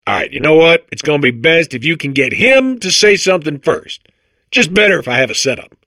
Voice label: babble; Portal 2